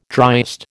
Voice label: "driest", American